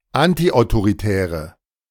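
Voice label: "antiautoritäre", German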